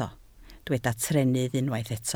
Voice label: "trennydd", Welsh

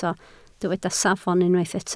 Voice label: "safon", Welsh

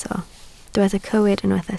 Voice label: "cywir", Welsh

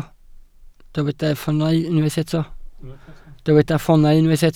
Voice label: "ffoonau", Welsh

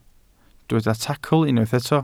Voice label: "tacl", Welsh

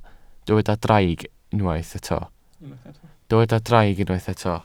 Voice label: "draig", Welsh

